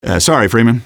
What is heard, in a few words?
sorry, male; Half-Life 2